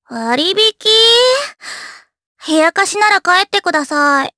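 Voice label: vox dia Japanese; kingsraid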